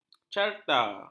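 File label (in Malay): "carta", Malay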